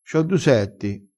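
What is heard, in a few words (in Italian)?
"setti", vocabolario dialettale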